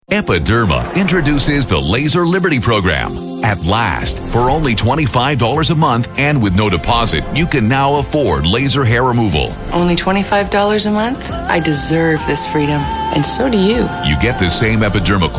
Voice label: TV Commercials Vrouwen